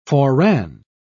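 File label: "foreran", British English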